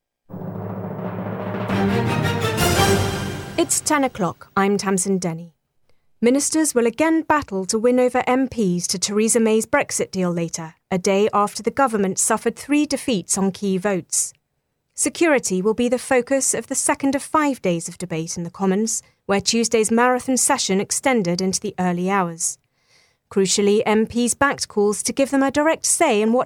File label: news read